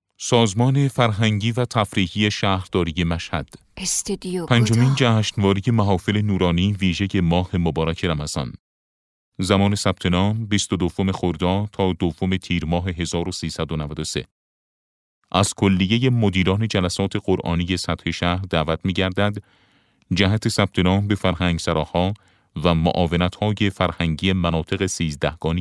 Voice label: narration